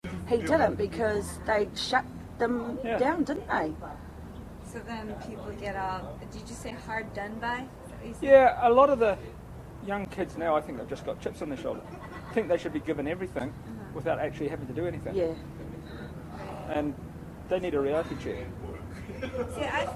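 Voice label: New Zealand Maori Couple Middle Age; Australia-Oceania; New Zealander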